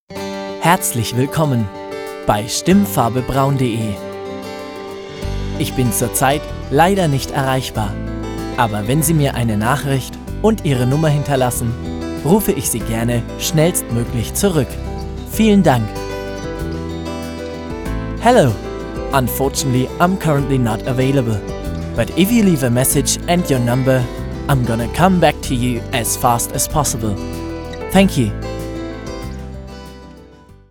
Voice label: Telefonansage nett zweisprachig

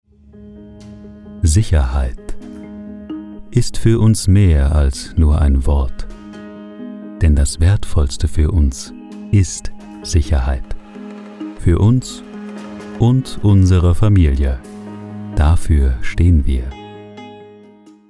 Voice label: Sprechprobe Product Film

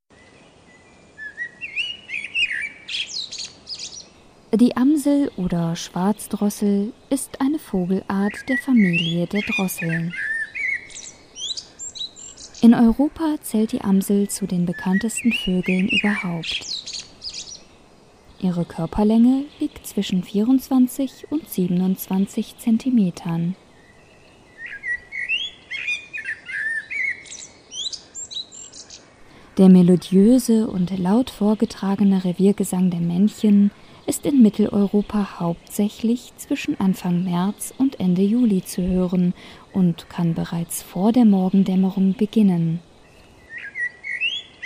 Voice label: Dokumentarfilm; Amsel

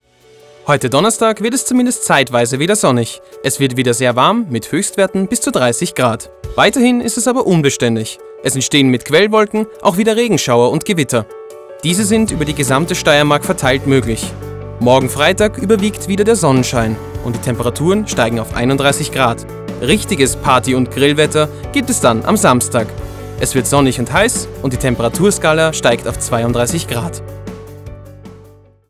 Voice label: Wetter mit Jingle

